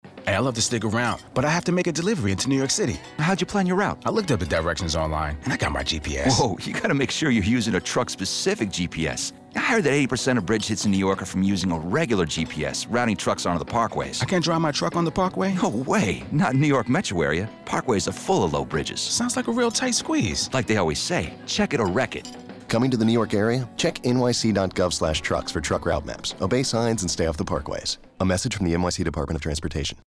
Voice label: radio spot; nycdot; bridge strike